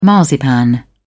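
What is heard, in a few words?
"marzipan", British English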